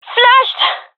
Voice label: blinded, fem; vo; gendermerie fem epic